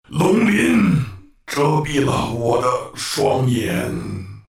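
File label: death, Chinese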